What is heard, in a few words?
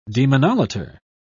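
"demonolater", British English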